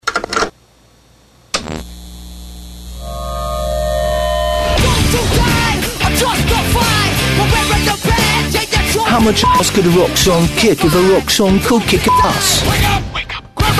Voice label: BRITISH accent voiceover; SPINAL TAP